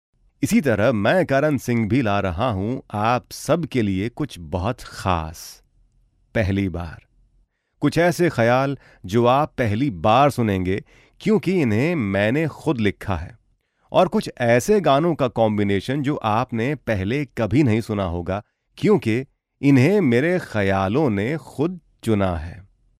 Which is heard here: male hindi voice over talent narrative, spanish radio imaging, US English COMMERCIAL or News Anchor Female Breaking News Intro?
male hindi voice over talent narrative